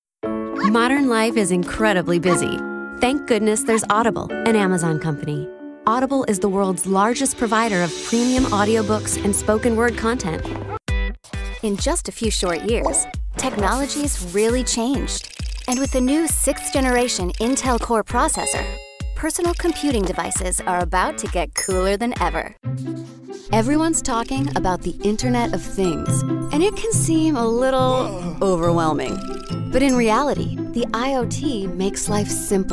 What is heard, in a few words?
Corporate Narration